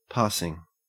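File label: "passing", Australian English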